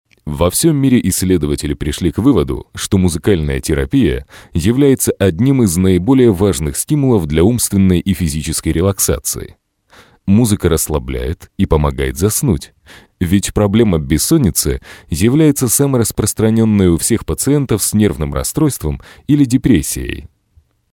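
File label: russian male voice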